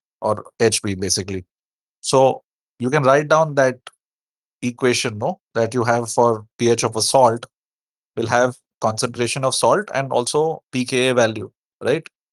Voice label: voice message